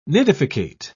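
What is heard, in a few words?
"nidificate", British English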